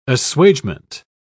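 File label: "assuagement", British English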